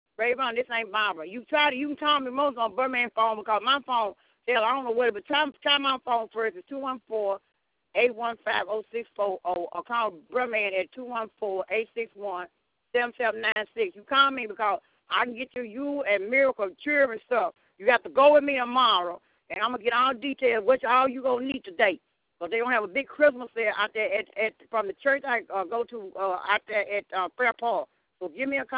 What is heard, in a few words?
voice message, sesli mesaj; ses tanima